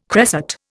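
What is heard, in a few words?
"cresset", Indian English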